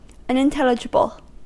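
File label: "unintelligible", American English; pronunciations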